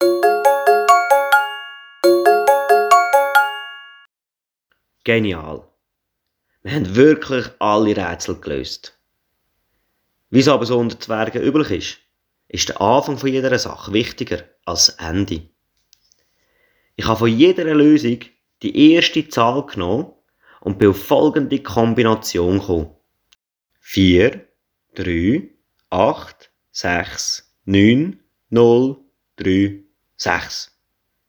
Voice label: Zahlen in Buchstaben umwandeln mit Jingle

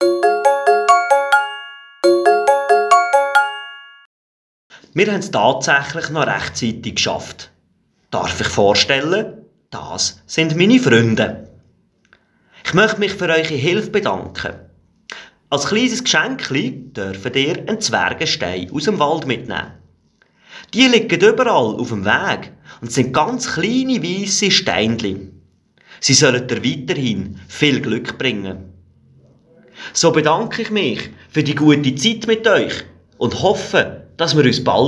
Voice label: Rückmeldung Schluss mit Jingle

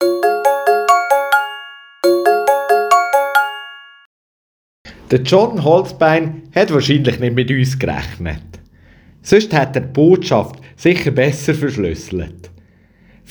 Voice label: Posten Schwert mit Jingle

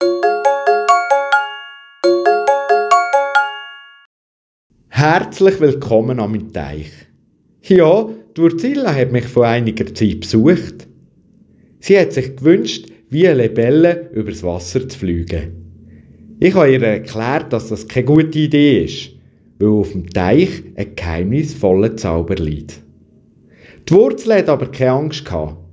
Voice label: Poste Kröte mit Jingle

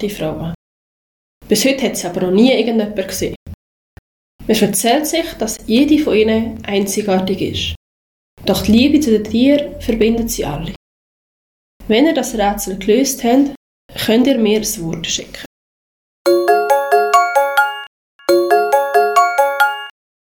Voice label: Posten Vogelschrecken mit Jingle

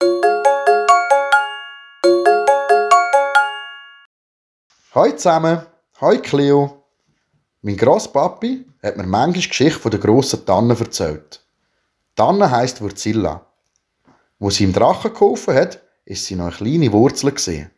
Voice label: Posten Eichhörnchen mit Jingle

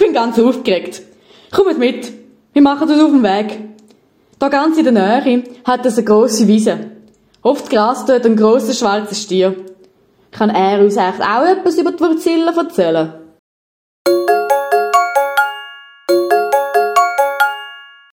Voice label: Zwischentext Baumfeen mit Jingle

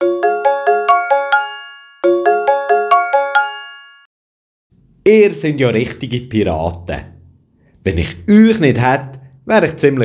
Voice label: Posten Anker mit Jingle

